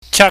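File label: "chak", Cantonese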